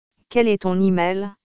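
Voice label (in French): "Quel est ton email", French; grammaire; questions pour faire connaissance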